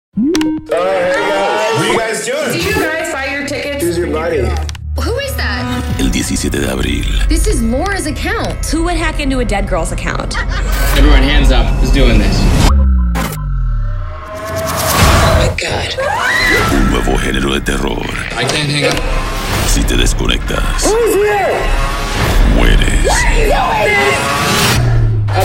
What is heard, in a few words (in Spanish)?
Spanish Spots; unfriended